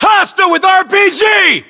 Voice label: threat rpg, US